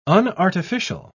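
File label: "unartificial", British English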